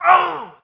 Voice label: german; Spec Ops 2